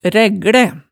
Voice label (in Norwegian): "ræglæ", Hemsedal